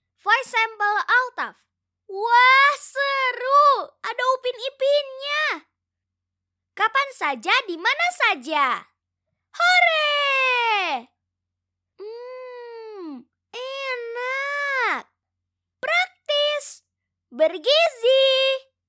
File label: CASUAL INDONESIA